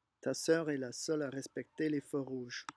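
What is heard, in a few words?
"ta soeur", French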